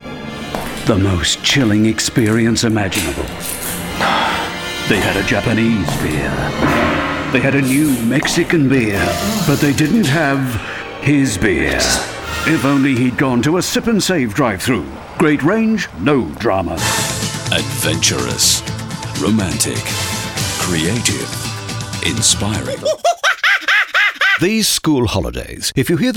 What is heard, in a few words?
Straight Voice Over